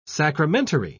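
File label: "sacramentary", English, British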